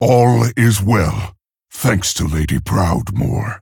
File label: Male Orc Victory; Thrall; Jaina